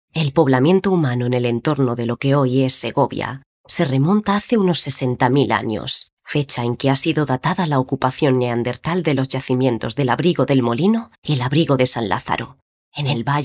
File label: locutora spanish voiceover